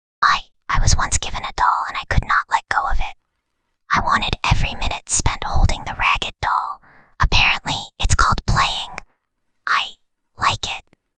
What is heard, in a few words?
Whispering Girl